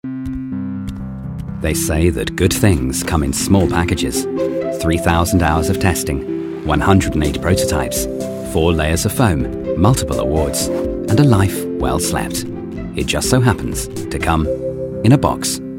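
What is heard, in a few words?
British English, Sanft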